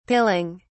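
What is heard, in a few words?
"pilling", British English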